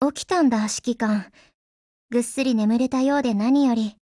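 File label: Japanese, Voice; GF Alarm; SP9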